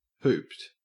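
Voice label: "hooped", Australian English; pronunciations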